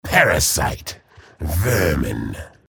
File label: chatter negative; respawn